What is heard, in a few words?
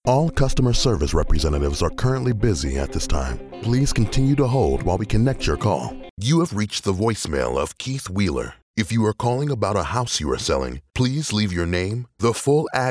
men telephone